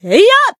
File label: attack, jp